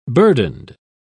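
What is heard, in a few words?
"burdened", British English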